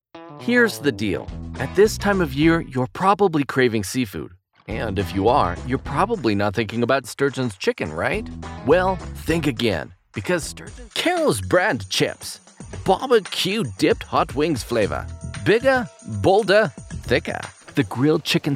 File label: TV Commercial